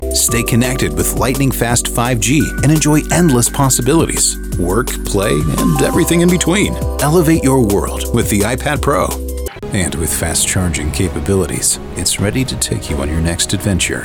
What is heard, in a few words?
Commercial